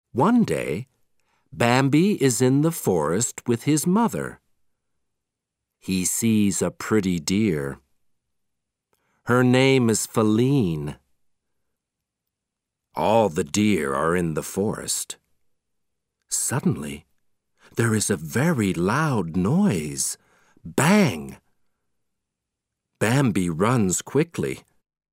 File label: Audio British English